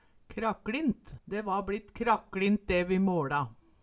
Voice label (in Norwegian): krakLint, Numedalsmål